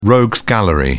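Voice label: "rogues gallery", British English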